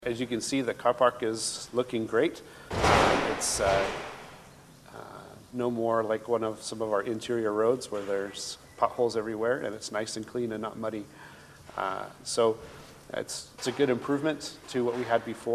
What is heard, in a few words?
Chief English